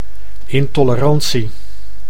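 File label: "intoleratie", Dutch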